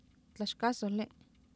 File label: tlaxkAasohlI, Oapan minimal pairs female; Oapan minimal pairs 1998